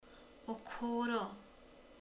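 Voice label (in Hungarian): "korsó, kancsó", Hungarian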